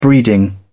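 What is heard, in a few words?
"breeding", British English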